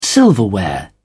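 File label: "silverware", British English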